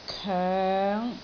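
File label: "koeng5", Cantonese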